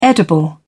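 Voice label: "edible", British English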